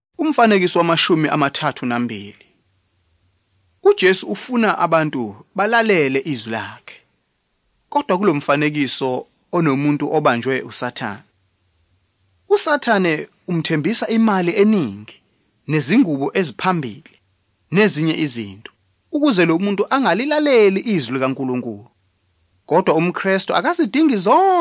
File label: zulu bible; good news